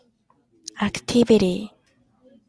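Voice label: "activity", Japanese, female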